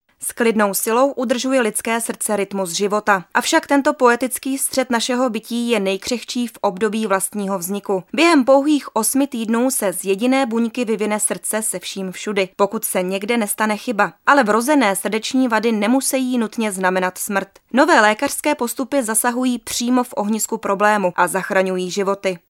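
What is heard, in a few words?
Female Czech documentary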